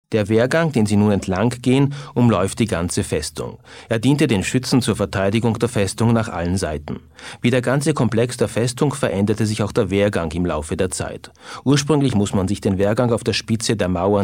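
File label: Austrian German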